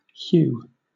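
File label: "hew", British English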